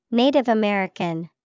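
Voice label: Native American, English vocabulary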